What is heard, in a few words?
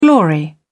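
"glory", English, British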